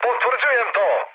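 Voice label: Serbian player killed enemy mood high, speech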